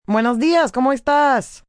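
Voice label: Hi How Are You Spanish, Greetings, female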